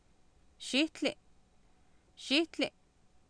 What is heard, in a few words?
"xiihtli", Oapan, female; Oapan minimal pairs 2000